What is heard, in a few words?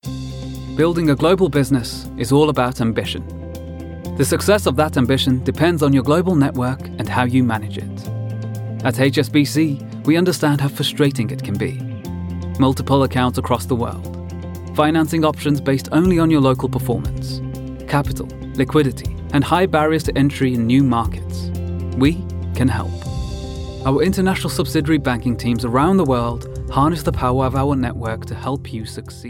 Corporate